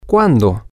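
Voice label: Spanish, when, male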